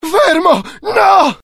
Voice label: fear, IT; dialogue; mohaa